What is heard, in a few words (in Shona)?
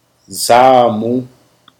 "breasts", Shona; female